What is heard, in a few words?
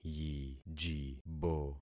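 "yijibo", concatenated syllables; Affixing experiment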